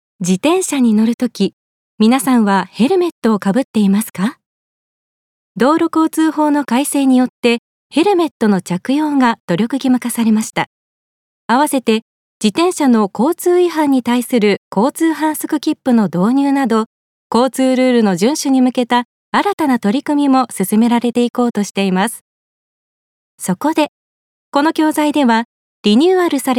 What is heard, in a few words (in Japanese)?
説明ナレーション